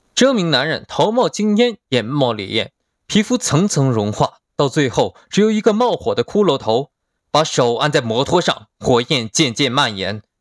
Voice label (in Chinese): 电影解说